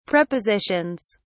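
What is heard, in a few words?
"prepositions", British English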